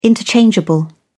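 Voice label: "interchangeable", English, British English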